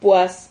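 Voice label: "puas", Spanish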